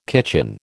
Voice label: "kitchen", American